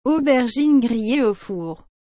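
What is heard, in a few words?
"aubergine grillee au four", French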